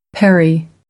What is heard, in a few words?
"parry", American English; ɛ - æ